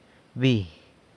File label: "bi", San Lucas Quiavini; air